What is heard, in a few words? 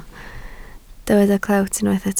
"clewt", Welsh